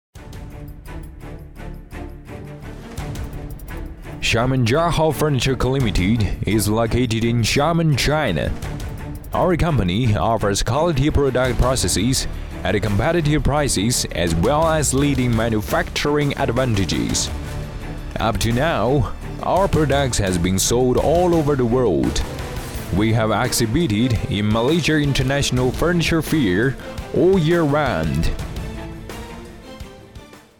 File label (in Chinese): 英文男